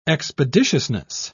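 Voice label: "expeditiousness", English, British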